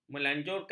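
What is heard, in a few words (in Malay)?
"melanjurkan", Malay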